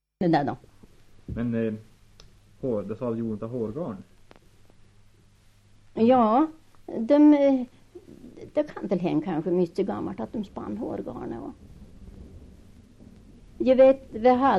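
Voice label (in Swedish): Revsund Jämtland, dialekter, svenska sagor; Folkminnen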